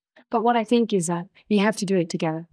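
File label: Voice Acting es, female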